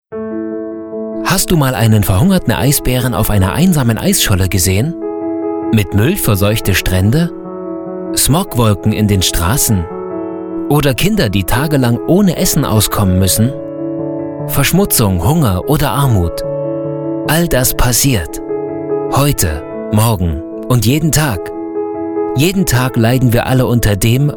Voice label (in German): Werbung emotional